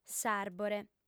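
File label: "s'àrbore", female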